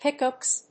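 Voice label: "pickax", English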